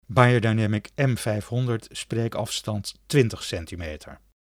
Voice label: Beyerdynamic M500 NL

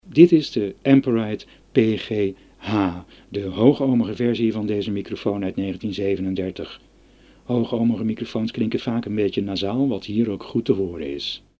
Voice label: Amperite PGH NL

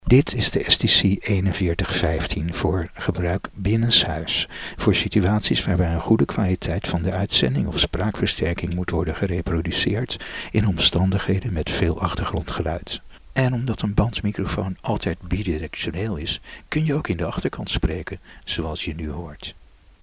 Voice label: STC 4115 NL

